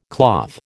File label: "cloth", American